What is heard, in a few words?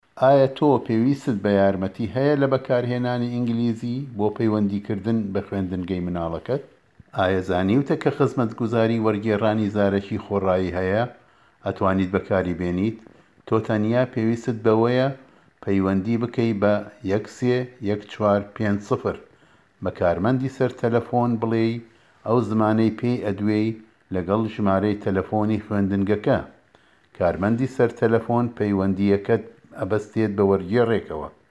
kurdish sorani; public schools; going to a public school